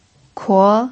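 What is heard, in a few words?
"kuo", Mandarin Chinese pinyin, tone 2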